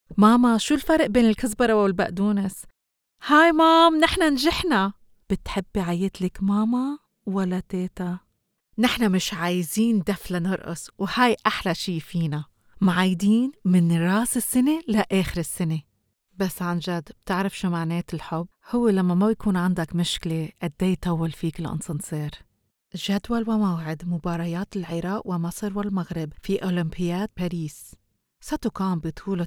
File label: Arabic Sample